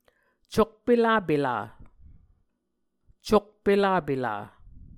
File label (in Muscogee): "cokpelapelv", Muscogee